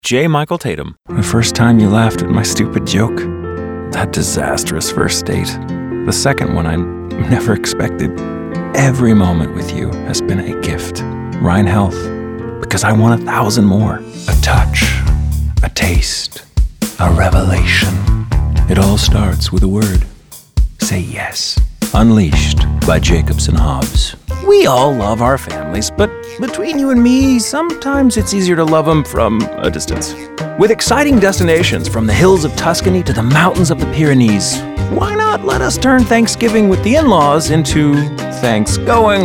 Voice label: Commercial Demo Slate Included